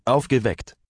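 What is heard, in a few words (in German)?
"aufgeweckt", German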